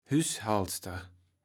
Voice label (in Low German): "Hüßhaelster", kaevels platt